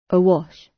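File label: "awash" British; Technology; Công nghệ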